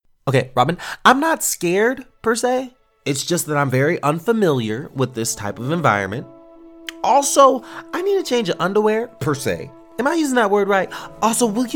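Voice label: animation men, voices men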